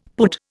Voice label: "pud", Indian; Dictionary

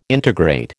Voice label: "integrate", American

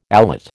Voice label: "alate", American

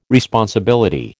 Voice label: "responsibility", American

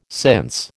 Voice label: "since", American

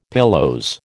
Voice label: "pilose", American

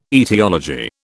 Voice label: "aetiology", British

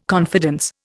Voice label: "confidence", Indian

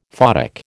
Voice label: "photic", American

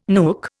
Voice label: "nook", Indian

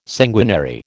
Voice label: "sanguinary", American

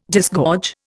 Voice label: "disgorge", Indian

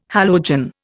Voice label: "halogen", Indian

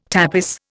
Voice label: "tapis", Indian

